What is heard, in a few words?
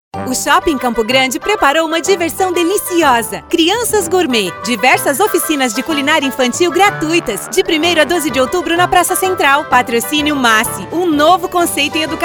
Sell Teen Kid